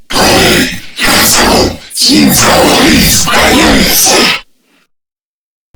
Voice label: Boss Speak Combat Taunt; Warframe; Lech Kril

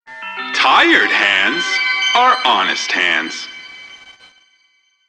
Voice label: PA System, en; Warframe; Solaris Venus

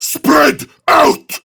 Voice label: Attack Prime Grineer Male; Tau; Battle Barks

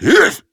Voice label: Angry; Warframe; Tyl Regor Boss; Efforts

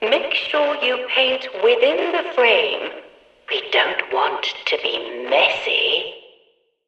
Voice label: Background Announcements; Warframe; Shadowgrapher; Follies Hunt

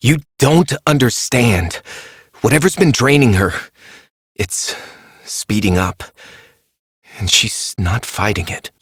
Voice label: Operator Voice; JadeShadowsQuest; Mission Four

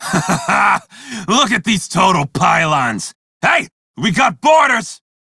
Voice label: Crew Enemy Spot, Male; Dialog; Warframe; Railjack Crew; Red Veil

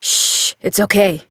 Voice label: Horse Calm Adult; Duviri

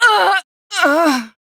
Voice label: Death, Female; Warframe; Railjack; New Loka

